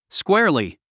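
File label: "squarely", English word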